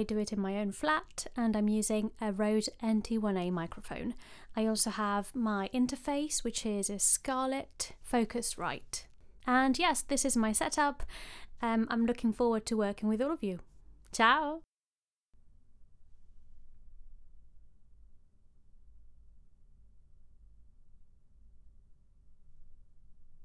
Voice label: Italian